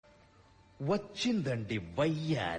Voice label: "amma" dialogue, telugu